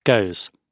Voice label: "goes", gb